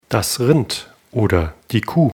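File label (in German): "rind", German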